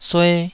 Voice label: "sue2", Taiwanese

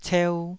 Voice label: "ceu2", taiwanese

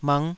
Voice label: "mngh5", taiwanese

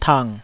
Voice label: "tang3", Taiwanese

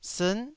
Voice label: "siin9", Taiwanese

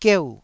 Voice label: "gieu4", Taiwanese syllable

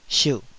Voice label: "siuh3", Taiwanese

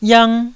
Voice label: "yang1", taiwanese Syllables

